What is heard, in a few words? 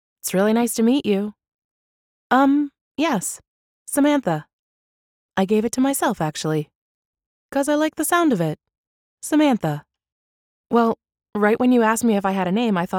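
us voice match, American English; scarlett johansson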